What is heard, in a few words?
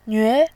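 "nve", Mandarin, tone 2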